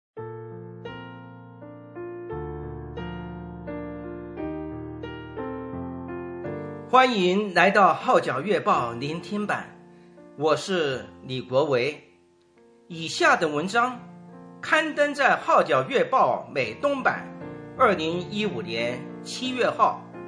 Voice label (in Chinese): Mandarin